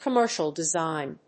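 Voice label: "commercial design", English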